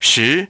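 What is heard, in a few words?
"ten", English; iOS 4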